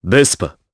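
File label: Vox Japanese; Riheet; Vespa; kingsraid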